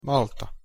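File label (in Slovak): "malta", Slovak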